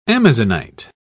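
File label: "amazonite", English, British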